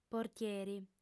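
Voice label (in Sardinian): "portieri", female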